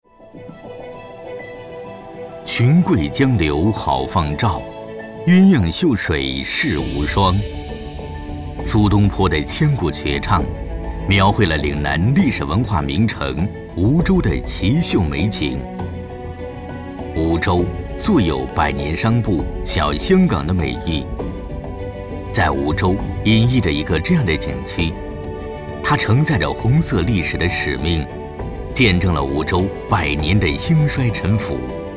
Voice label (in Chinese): 【宣传片】, 国语; 中山公园